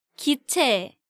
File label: "Giche", Korean